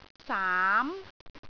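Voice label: "saam2", Cantonese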